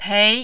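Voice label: "pei", Mandarin, second tone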